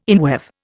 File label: "inweave", Indian